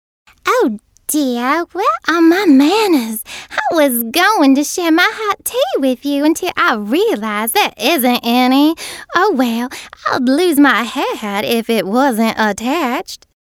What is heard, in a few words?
Lady